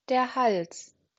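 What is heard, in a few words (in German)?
"Hals", German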